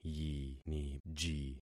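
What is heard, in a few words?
"yiniji", concatenated syllables; Affixing experiment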